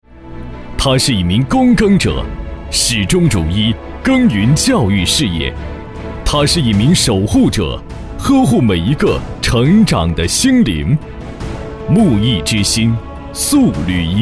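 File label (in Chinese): 男【颁奖】; 她是一名守护者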